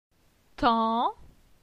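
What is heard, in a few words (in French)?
"Tan", French; phonetique; la syllabe an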